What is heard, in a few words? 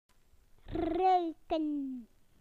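"to smell", British English; PictureDictionary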